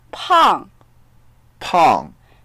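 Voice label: "pang4", Mandarin Chinese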